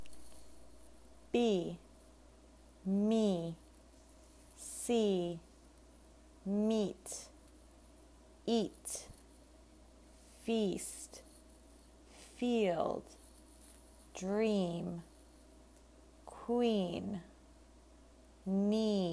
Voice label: Iy Words Slow